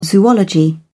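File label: "zoology", British English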